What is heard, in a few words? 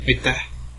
thanks, estonian